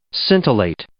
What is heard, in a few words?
"scintillate", English